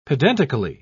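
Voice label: "pedantically", English, British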